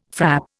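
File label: "frap", Indian English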